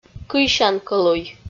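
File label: "кыйсян кӧлуй" Komi, female; kpv audio dict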